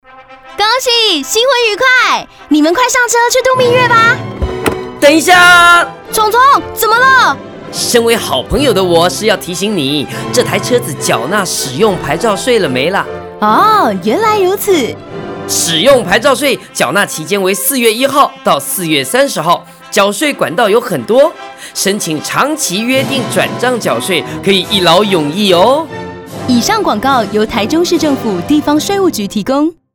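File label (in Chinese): 使用牌照稅開徵廣播廣告 國語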